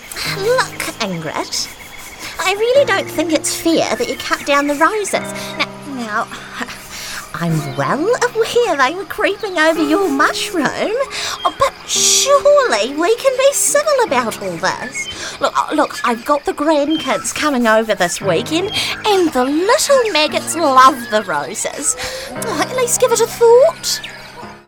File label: character animated aphid; character vo